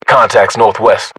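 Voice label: British threat infantry north west, battle chatter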